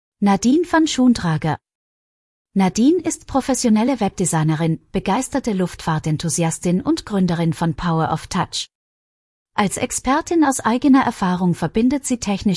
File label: text to voice, de